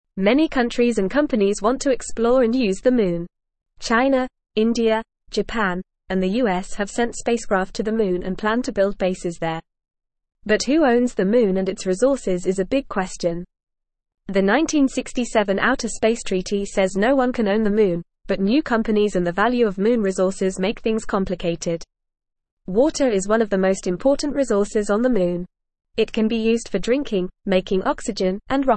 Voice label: English Lower Intermediate FAST Reading; Who Owns the Moon

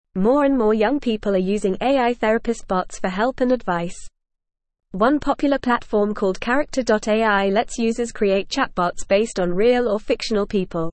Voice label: English FAST Reading; English Newsroom; Surge in Popularity of AI Therapist Bots

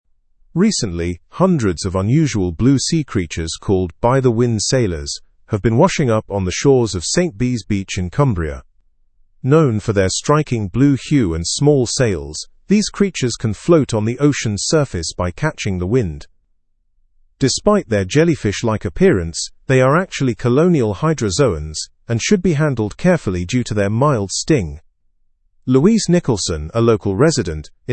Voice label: learn english through news upper intermediate; hundreds of blue creatures found on cumbria beach

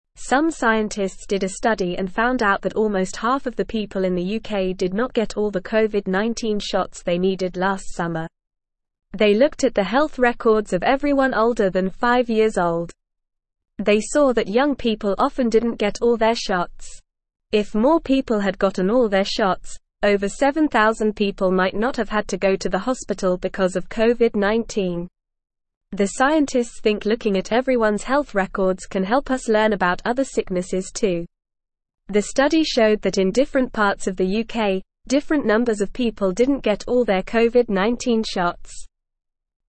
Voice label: English Lower Intermediate NORMAL Reading; Not Enough People Got Shots to Stay Safe; English Newsroom

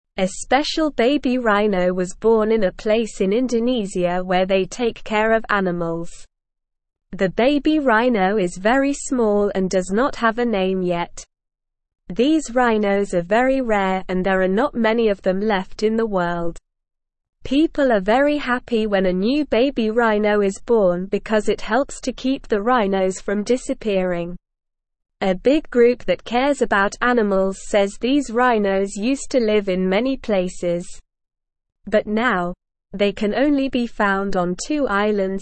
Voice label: English Newsroom Lower Intermediate SLOW Reading; Baby Sumatran Rhino Brings Hope for Rare Animals

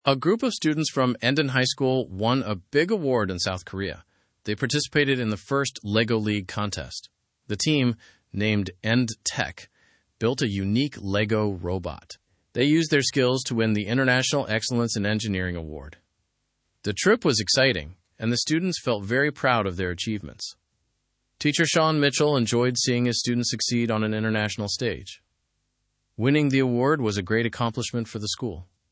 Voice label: english through news lower intermediate fast; endon lego team wins in south korea

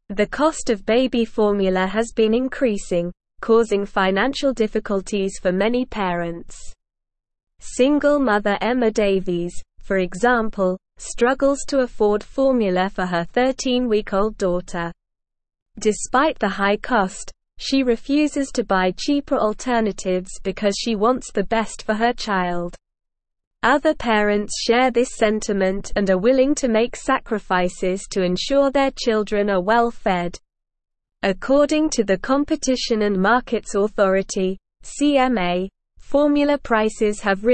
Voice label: English Advanced SLOW Reading; English Newsroom; Rising Baby Formula Costs Strain Parents Budgets

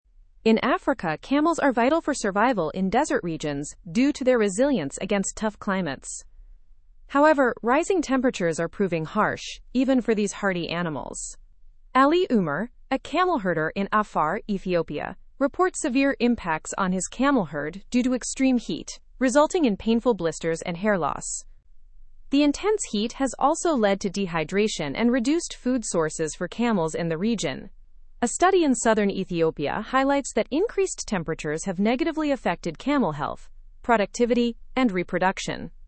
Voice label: english through news upper intermediate; africa s camels struggle with climate change

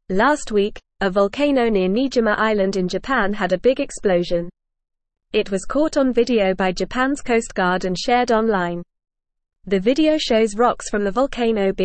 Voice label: English Upper Intermediate FAST Reading; English Newsroom; Explosive Eruption on Niijima Island in Japan

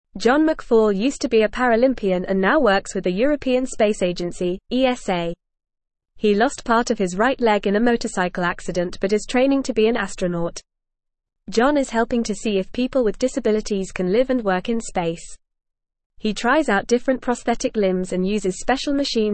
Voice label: English FAST Reading; John McFall From Sports Champion to Space Explorer

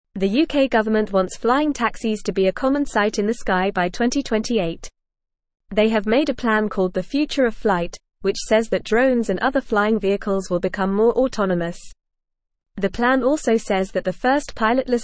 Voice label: English FAST Reading; UK Government Plans for Flying Taxis by 2028

